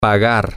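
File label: to Pay, spanish, male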